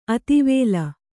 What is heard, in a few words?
"ಅತಿವೇಲ", Kannada